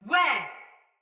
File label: Sight Lost Shout Soldier Mace Agent Female; Battle; Sinecure Melee